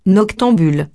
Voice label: "noctambule", frengjisht

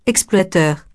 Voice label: "exploiteur", frengjisht

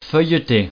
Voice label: "feuilleter", frengjisht

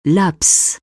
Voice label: "laps", frengjisht

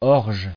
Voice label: "orge", frengjisht

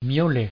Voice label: "miauler", frengjisht

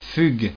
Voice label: "fugue", frengjisht

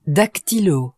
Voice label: "dactylo", frengjisht

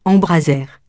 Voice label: "embraser", frengjisht

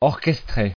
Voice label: "orchestrer", frengjisht

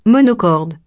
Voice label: "monocorde", frengjisht

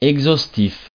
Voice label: "exhaustif", frengjisht

